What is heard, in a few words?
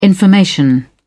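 "information", British English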